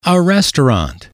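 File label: "a restaurant", male, English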